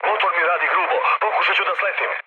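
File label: Serbian damaged engine mood med, speech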